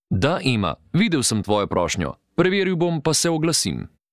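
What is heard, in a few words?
slovenian male commercial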